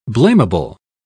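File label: "blamable", British English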